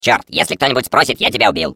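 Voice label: Defective Turret turret return defect fail, Russian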